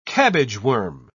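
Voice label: "cabbageworm", British English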